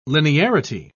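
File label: "linearity", British English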